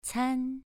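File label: "can" Mandarin, second tone, pronunciation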